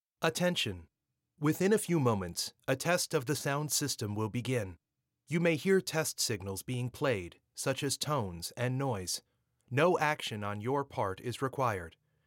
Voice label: English (US) Male

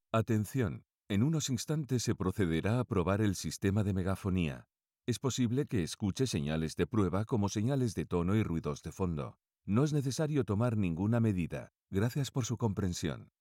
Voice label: Spanish Male, Speech Samples

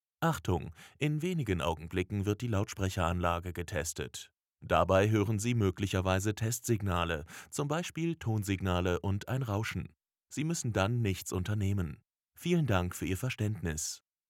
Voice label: German Male

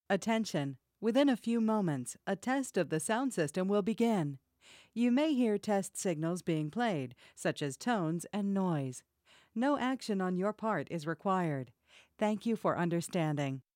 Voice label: English (US) Female

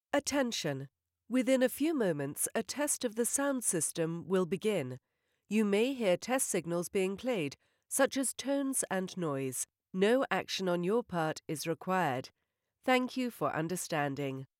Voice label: English (UK) Female

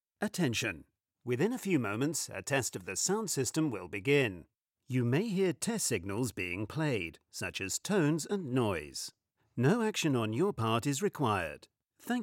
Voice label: English UK Male, Speech Samples

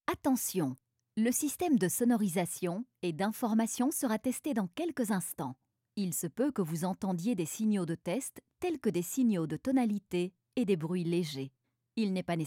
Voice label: French Female

